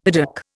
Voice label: "piddock", Indian; Dictionary

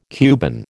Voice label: "Cuban", American

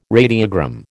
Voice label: "radiogram", American

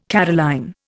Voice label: "Caroline", Indian

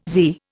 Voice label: "zee", Indian

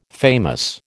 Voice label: "famous", American

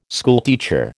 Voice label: "schoolteacher", American

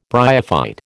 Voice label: "bryophyte", American

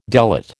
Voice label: "delate", American

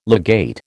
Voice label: "legate", American

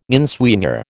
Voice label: "inswinger", American

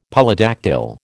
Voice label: "Polydactyl", American

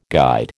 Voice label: "guide", American

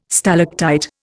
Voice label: "stalactite", Indian

